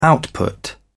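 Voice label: "output", British English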